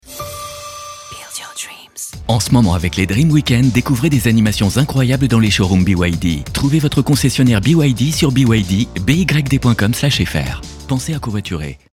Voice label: vendeur efficace, voix off publicité; BYD; rapide, efficace

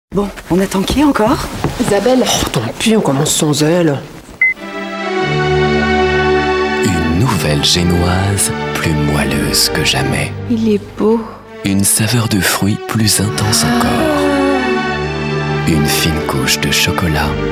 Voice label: gourmand, appetissant, sensuel, voix off publicité

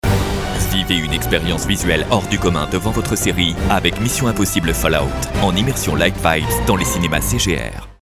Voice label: aventure action, voix off; MISSION IMPOSSIBLE aventure, action

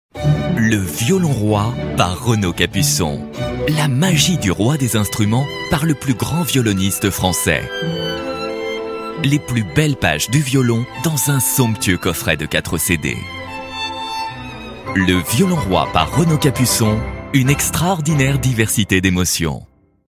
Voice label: promo, voix off disque, homme

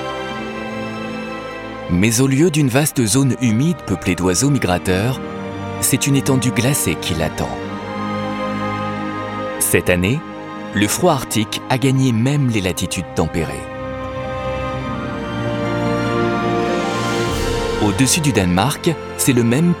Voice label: Chouette harfang, Voix off documentaire; LE VOYAGE DE LA CHOUETTE HARFANG arte

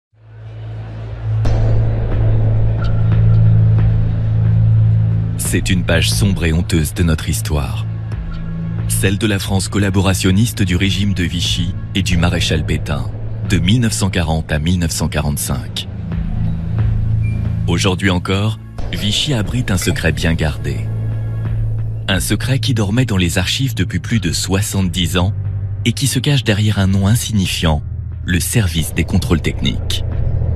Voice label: documentaire, Voix off documentaire; QUAND VICHY ESPIONNAIT LES FRANCAIS dramatique

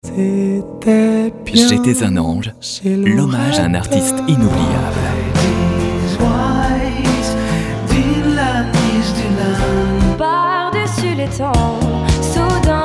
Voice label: naturel, comedien voix off homme, voix off disque

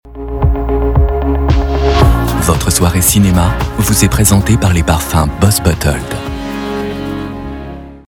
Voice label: doux intime, comédien voix off; BOSS BOTTLED doux, intime

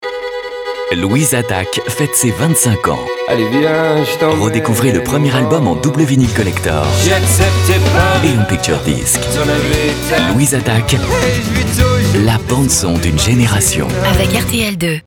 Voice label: elegant, comedien voix off homme, voix off disque

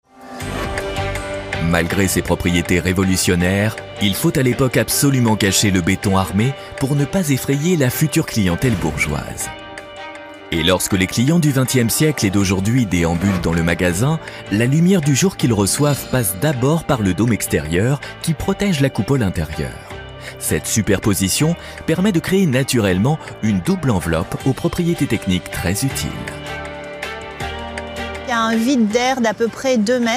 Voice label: documentaire, Voix off documentaire; LES TOITS DE PARIS narration, élégant

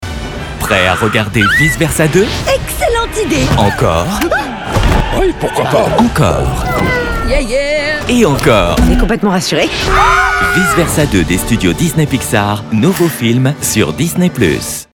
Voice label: enthousiaste complice, voix off habillage

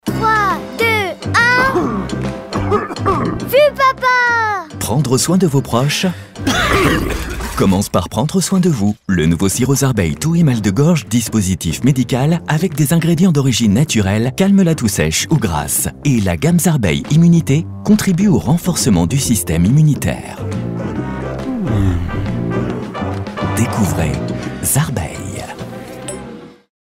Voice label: rassurant informatif, voix off publicité; JOHNSON & JOHNSON

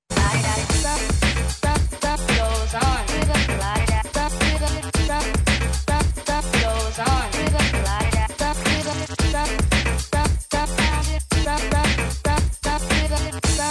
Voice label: elegant specialiste, élégant, chaleureux, Comédien voix off; ARABELLE

